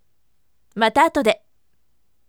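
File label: voice, firm; mata atode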